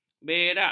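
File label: "berak", Malay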